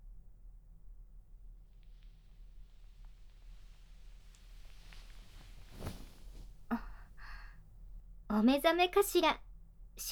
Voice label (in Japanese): KU100 ドSな悪魔社長